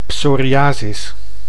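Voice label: "psoriasis", Dutch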